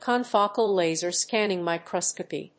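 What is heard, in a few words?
"confocal laser scanning microscopy", English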